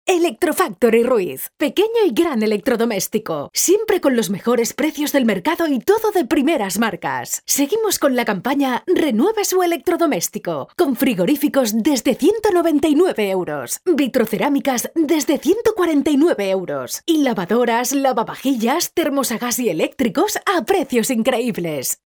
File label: locutora spanish voiceover, locutora profesional